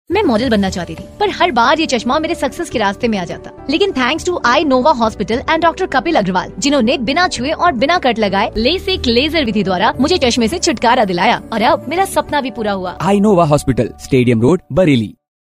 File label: Radio; Eyenova; Radio City; advertising